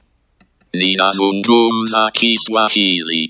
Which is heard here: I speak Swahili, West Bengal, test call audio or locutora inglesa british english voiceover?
I speak Swahili